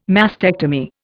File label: "mastectomy", Indian